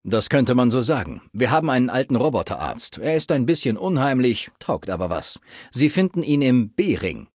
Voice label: Male adult default dialogue; Fallout 3: Audiodialoge